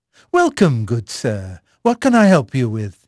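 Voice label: English male; Pirates Of The Caribbean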